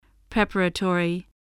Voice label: "preparatory", voice, spelling